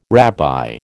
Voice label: "rabbi", American

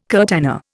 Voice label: "curtana", Indian

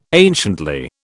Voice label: "anciently", British

